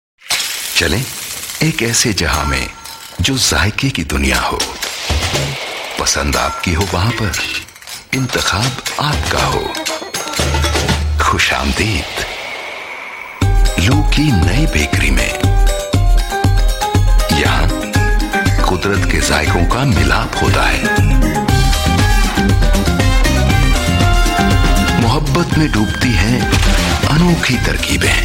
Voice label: Indian Urdu, India Male; LU Bakeri